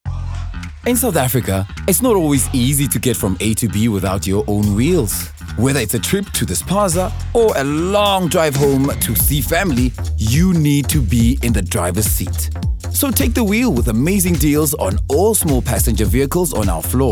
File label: M